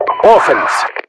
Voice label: offense, voiceovers RU; mpvoice

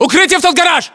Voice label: order cover garage, ru

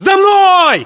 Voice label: order move follow, ru; localized english

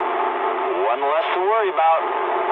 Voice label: eng player killed enemy mood low, speech eng; War Thunder

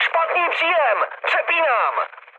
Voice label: chat, speech Czech; War Thunder

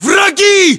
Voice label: threat infantry generic, english; localized english

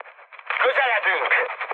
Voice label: near waypoint, mood high, speech hun; War Thunder

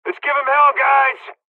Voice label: dialog, hungarian; us midway; War Thunder

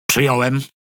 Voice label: Speech Quick Commands Aye Aye, PL; World Of Warships

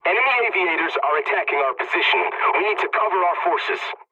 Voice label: pilot point under attack, english; War Thunder

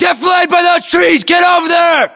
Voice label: US order cover trees, battlechatter, english; localized english

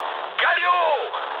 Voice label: on fire, mood low, speech ru; War Thunder

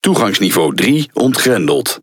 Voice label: NL Speech Access Level; World Of Warships

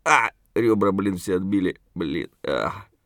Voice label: scav mutter, voice; Escape from Tarkov; scav5

